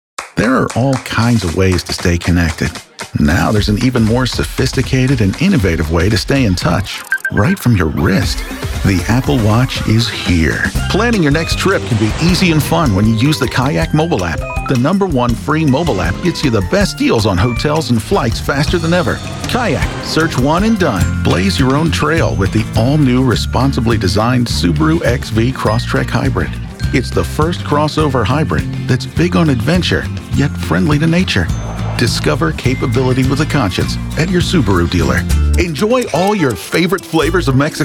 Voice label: Commercials

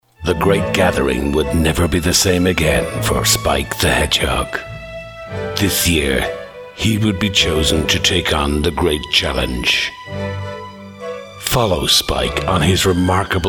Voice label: Promo

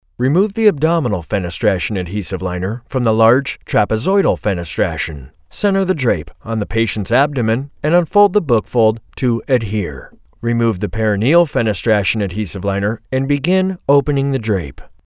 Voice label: audiobook MEDICAL

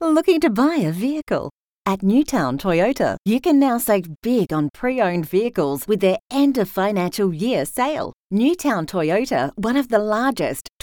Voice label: middle age woman english australia; New Town Toyota

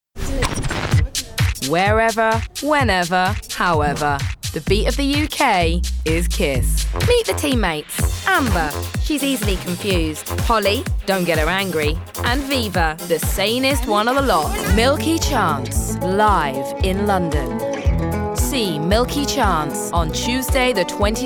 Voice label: commercial montage

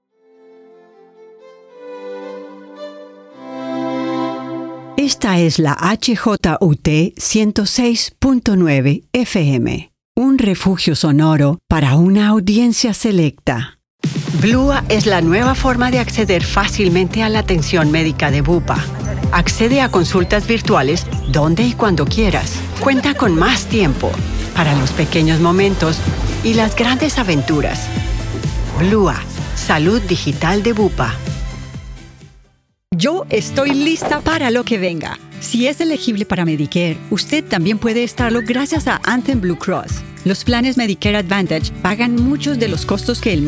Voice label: RADIO AND TV COMMERCIALS, Actriz de Voz Femenino

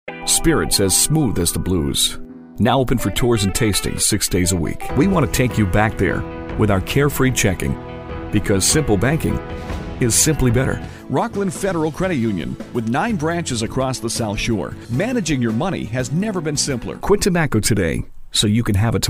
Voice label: SOFT

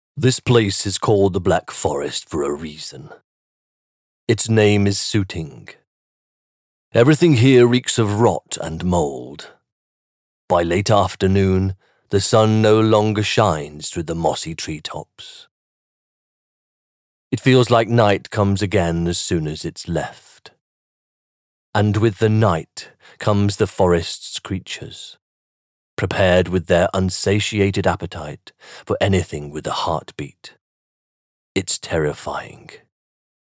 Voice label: Game Character Hero

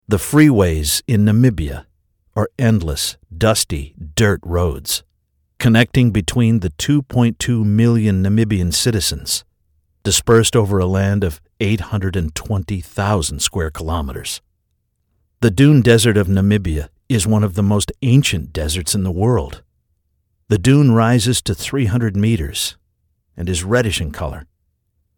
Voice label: Story Teller

